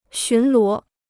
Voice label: "巡逻", Chinese

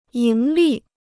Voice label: "盈利", Chinese

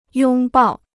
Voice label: "拥抱", Chinese

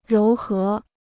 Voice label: "柔和", Chinese

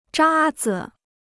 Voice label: "渣子", Chinese

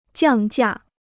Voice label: "降价", Chinese

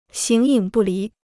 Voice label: "形影不离", Chinese

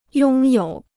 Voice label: "拥有", Chinese

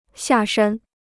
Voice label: "下山", Chinese

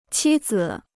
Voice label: "妻子", Chinese

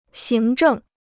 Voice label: "行政", Chinese

